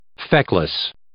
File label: "feckless", English